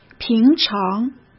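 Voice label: "平常", Mandarin Chinese; chinese flashcards; hsk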